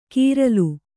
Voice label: "ಕೀರ್ಲು", Kannada

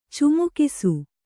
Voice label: "ಚಮಕಿಸು", Kannada

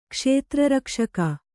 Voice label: "ಕ್ಷೇತ್ರರಕ್ಷಕ", Kannada

